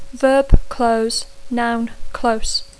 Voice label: "close", English

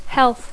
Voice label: "health", English; salut; sanitat

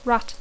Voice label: "rat", English; rata

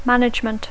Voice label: "management", English; direcció; gestió

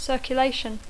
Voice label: "circulation", English

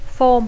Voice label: "form", English

